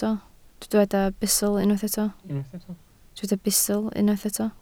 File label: "bustl", Welsh